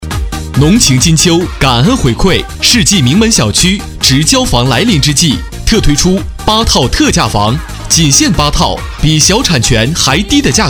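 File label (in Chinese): 广告, 男; 世纪名门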